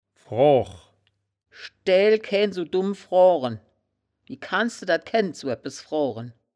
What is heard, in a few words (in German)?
"froach", woerterbuch, Wittlich